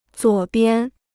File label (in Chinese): "左边", Chinese